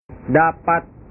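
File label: "dapat", Indonesian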